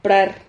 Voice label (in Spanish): "prar", Silabas